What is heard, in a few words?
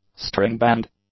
"string band", english; nouns